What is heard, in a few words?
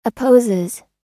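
"opposes", US English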